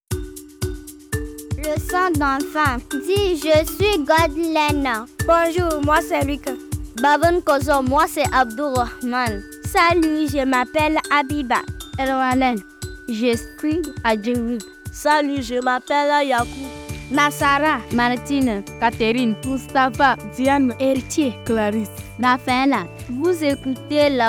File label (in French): Message de salutation; Astuce; la petite ecole de gentinnes